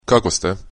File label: how are you, croatian